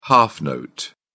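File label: "half note", British English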